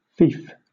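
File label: "fief", British English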